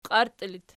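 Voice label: "qiarti" locative, Archi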